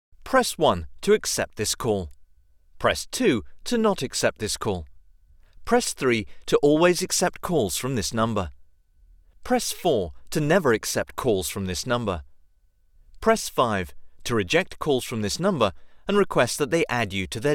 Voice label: Male Voice Over Talents Telephone British